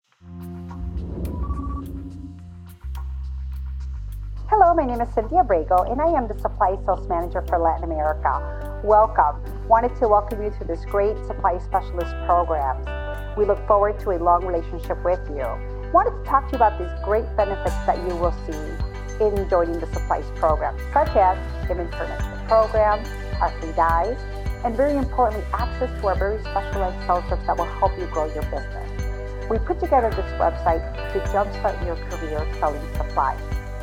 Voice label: english; zna specialization program